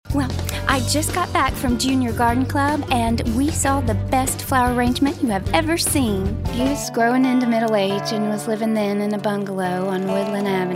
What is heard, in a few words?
Country Southern